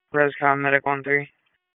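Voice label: EMS Dispatch; wake; p25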